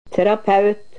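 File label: "terapeut", Norwegian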